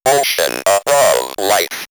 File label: Robot Ocean Above Life, robot vox; X-Static Goldmine